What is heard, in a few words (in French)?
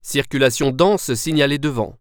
Approach Traffic, french; waze